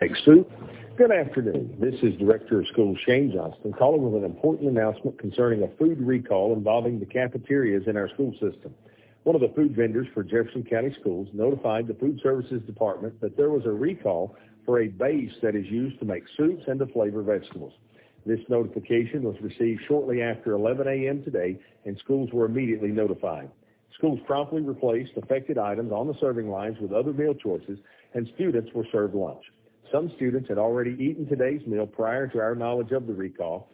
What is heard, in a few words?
voicemail; nd harvest food bank; crisis mode amid covid 19 concerns